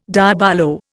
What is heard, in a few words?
"diabolo", Indian